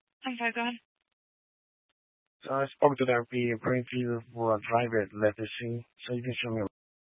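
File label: Dispatch, Scanner audio; Troop 1A East; Jefferson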